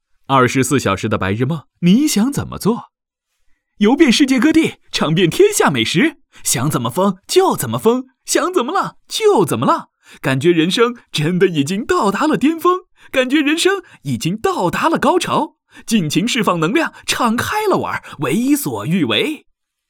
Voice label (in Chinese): 电信广告 年轻时尚 5G